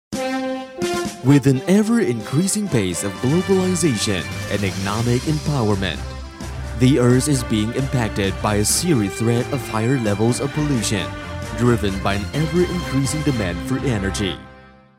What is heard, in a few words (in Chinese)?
英男 专题片; 华为